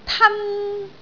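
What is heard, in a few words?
"tan1", Cantonese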